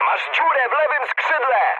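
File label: Polish left wing mood high; speech; War Thunder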